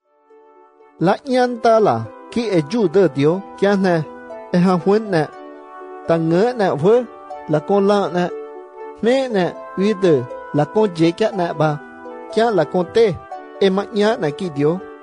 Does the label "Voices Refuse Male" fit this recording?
No